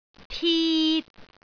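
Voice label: "tit3", Cantonese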